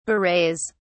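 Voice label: "berets", English, British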